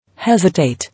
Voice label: "Hesitate", English

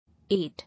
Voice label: "Aid", English

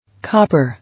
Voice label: "Copper", English

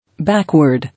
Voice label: "Backward", English

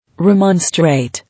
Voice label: "Remonstrate", English

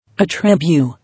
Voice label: "Attribue", English

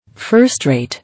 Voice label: "First rate", English

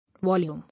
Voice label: "Volume", English

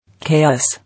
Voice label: "Chaos", English

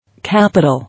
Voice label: "Capital", English

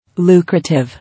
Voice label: "Lucrative", English

